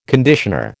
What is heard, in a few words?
"conditioner", American